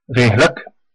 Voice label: good luck, west flemish